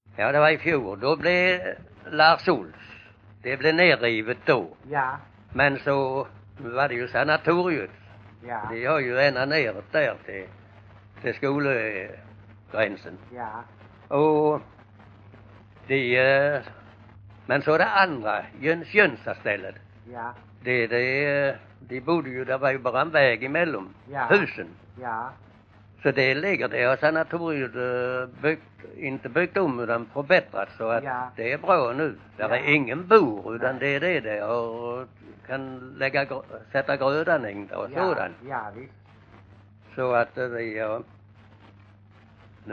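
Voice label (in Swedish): Bosjökloster Skåne, dialekter; Folkminnen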